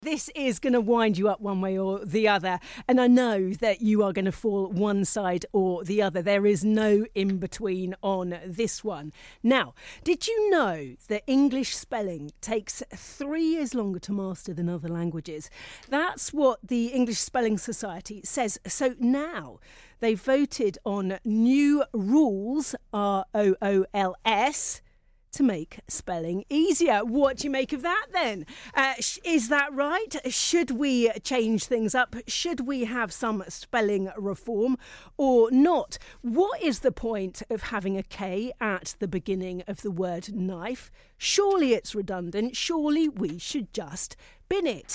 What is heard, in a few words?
Interview, Radio; BBC Radio Jersey